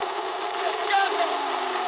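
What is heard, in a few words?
aircraft destroyed mood low, speech English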